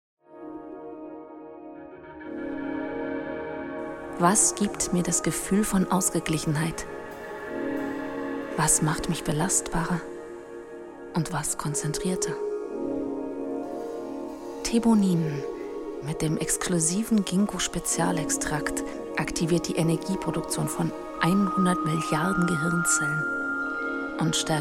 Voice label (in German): werbung